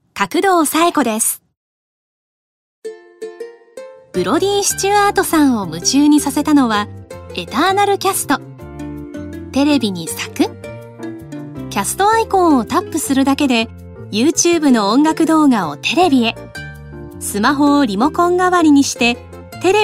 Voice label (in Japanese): ナチュラル